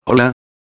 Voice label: "hola", espanhol

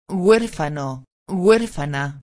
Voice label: "huerfano a", espanhol; palavra do dia

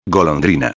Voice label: "golondrina", espanhol; palavra do dia

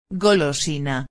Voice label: "golosina", espanhol; palavra do dia

